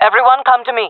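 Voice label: request regroup, jungle female; vo; agents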